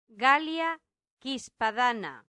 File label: "gallia cispadana", Latin; santillana